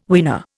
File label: "weaner", Indian